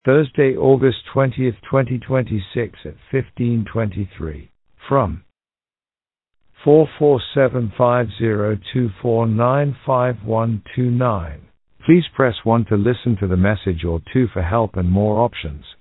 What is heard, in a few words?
call recording